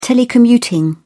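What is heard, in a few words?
"telecommuting", British English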